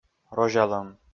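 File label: "розялӧм", Komi, male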